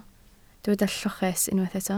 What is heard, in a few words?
"lloches", Welsh